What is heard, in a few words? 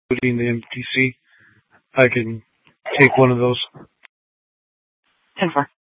Dispatch; Scottsdale